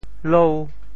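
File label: "lou5", Teochew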